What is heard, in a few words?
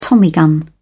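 "tommy gun", British English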